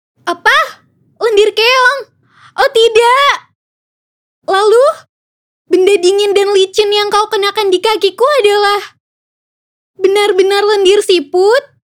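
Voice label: character Indonesian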